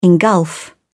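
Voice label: "engulf", British English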